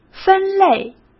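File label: "分类", Mandarin Chinese; chinese-flashcards; hsk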